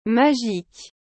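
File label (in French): "magique", French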